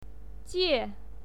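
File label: "jie", Mandarin Chinese pinyin, fourth tone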